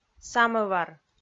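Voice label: "самӧвар", Komi, female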